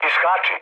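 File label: Serbian bailout mood low, speech; War Thunder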